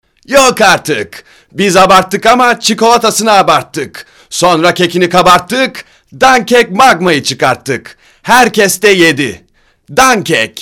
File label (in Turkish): REKLAM